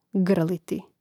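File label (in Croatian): "grliti", Hrvatski; mreznik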